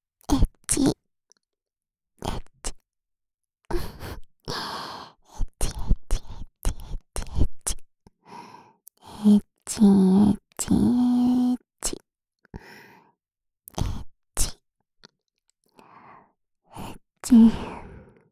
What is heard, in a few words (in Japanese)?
えっち…, ASMR, 右耳